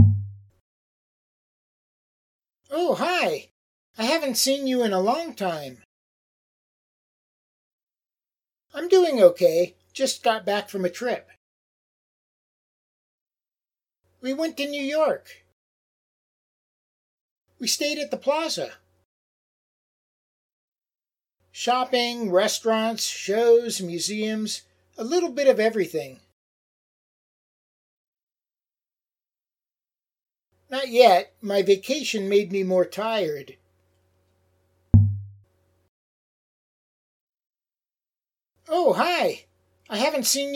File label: Natural Dialog